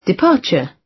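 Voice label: "departure", British English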